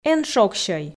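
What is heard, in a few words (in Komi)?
"эн шогсьӧй", Komi, female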